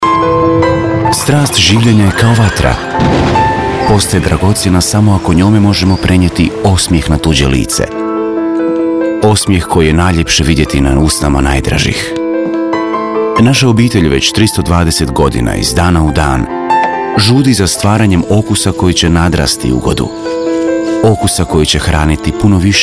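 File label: croatian male commercial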